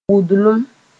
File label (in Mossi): "wʋndlem", moore; being deaf